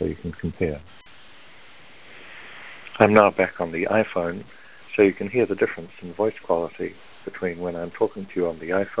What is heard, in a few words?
jawbone quiet, bluetooth